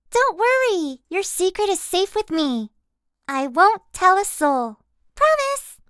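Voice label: Character Sweet Innocent Young, Female; American English voice-over artist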